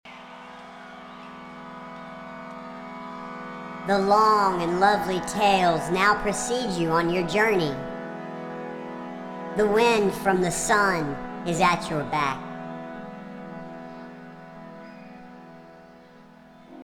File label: Narration